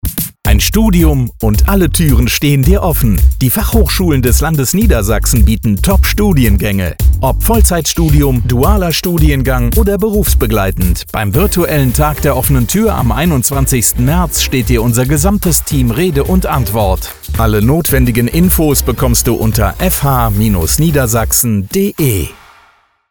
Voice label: german commercial